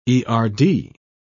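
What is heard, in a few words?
"erd", British English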